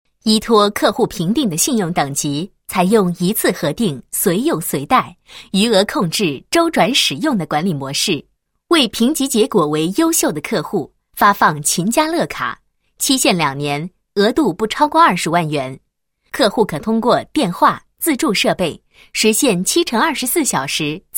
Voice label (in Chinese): 女 产品介绍; 富秦家乐卡贷款